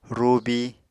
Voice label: "rubi", Apatani

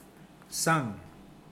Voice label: fly, Mokilese

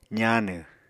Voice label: "zaanw", Apatani

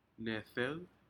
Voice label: "nedec", Aneityum; shellfish

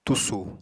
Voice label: "tusù", apatani

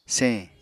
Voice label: "señ", apatani

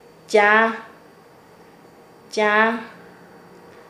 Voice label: Nasa Yuwe; el o ella; paez

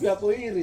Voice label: "pia koiri", Ansus; one hundred

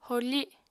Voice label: "horfi", Apatani

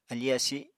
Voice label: "afi asi", apatani; Material Culture

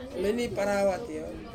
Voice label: "meni parawati", very thin, Ansus

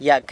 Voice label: "Yac", San Lucas Quiavini; No

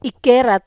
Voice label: "kerat", Wamesa

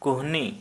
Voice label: Santali Elbow

